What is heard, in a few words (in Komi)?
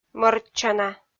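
"мӧрччана", Komi, female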